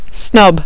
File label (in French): "snob", French